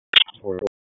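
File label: Police Dispatch, p25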